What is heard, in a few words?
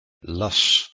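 "leh2s", Proto-Indo-European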